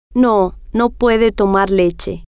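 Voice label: no Puede Tomar Leche, female, spanish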